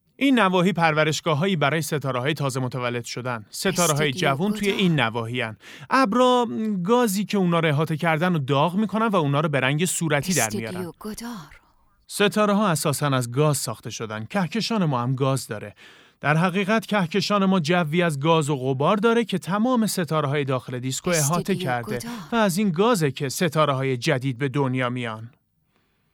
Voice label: dub